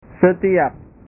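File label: "setiap", Indonesian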